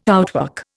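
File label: "outwork", Indian English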